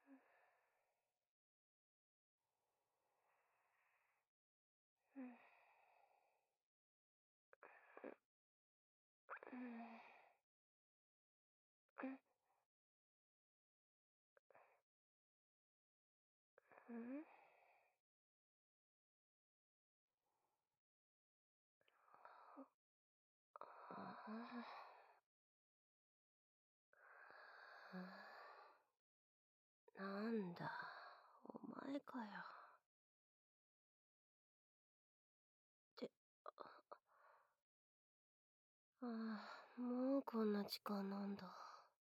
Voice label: 耳舐めと人差し指でくるくるご褒美乳首いじめ, ASMR

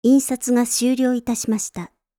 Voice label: 印刷が終了致しました, システムボイス; Windows等向けシステムボイス

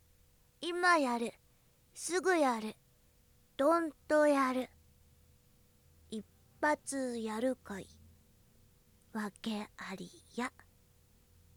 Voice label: タイトルコール; ショタ好きロリうさちゃん

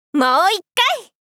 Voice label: "もーいっかい！", お姉さん×中音域

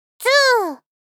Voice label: 2（ツー） 少女×中音域

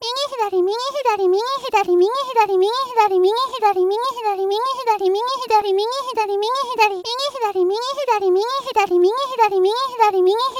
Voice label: 左右ボイス

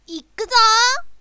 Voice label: "いくっぞー", 攻撃

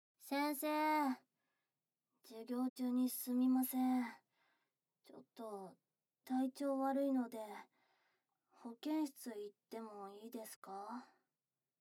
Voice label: SEなし 教室(授業中)

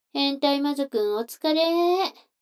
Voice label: 女の子台詞

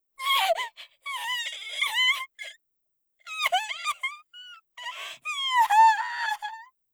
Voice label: 怯える; 効果音なし

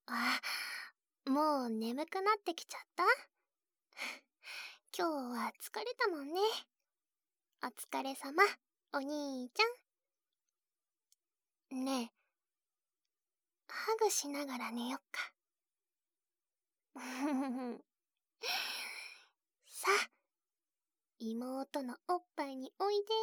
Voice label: 妹ちゃんの添い寝安眠トラック♪, ASMR

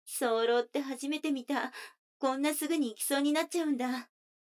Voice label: 女の子 台詞, ASMR

